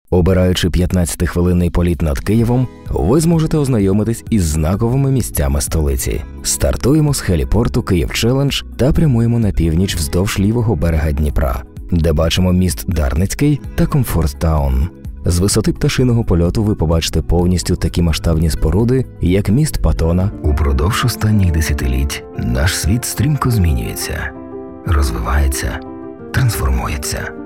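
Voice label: Ukrainian male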